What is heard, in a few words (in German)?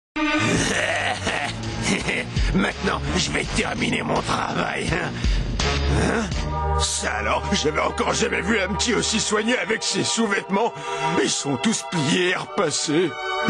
comic, maenner aus aller welt